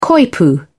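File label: "coypu", British English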